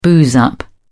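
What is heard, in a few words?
"booze up", British English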